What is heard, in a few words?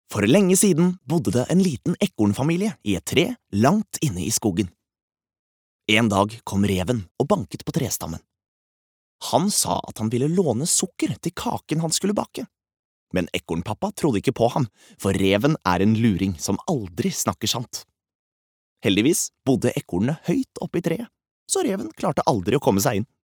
no childrens book